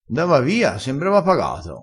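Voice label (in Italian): "sembra pagato", dialetto crespinese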